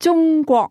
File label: "china", cantonese; countries